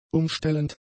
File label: "umstellend", German; adjectives